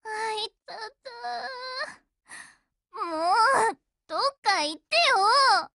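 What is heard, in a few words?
BREAK Japanese, Voice; GF Alarm; C96Mod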